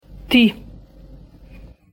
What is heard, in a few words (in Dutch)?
"టీ", Telugu; thee-in-andere-talen